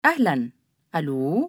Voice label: arabic female villager; age2

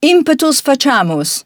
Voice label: latin Female villager; age2

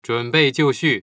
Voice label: chinese King; age2

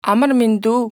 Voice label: mongolian Female villager; age2

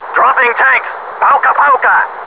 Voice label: DROP TANK, German; combatfs

